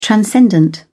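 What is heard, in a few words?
"transcendent", British English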